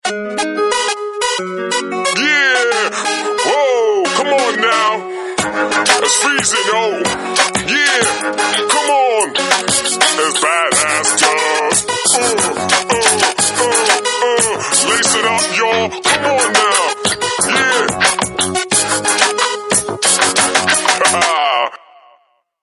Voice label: hip hop guy; Samsung GT-S5233 Star